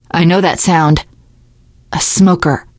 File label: heard smoker, teengirl; survivor voice